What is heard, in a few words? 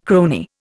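"crony", Indian English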